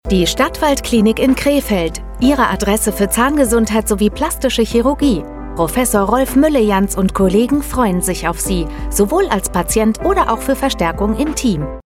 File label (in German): Funkspot; Stadtwald ZMVZGM GmbH